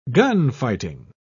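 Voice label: "gunfighting", British English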